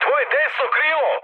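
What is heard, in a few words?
Serbian right wing mood high; War Thunder; speech srb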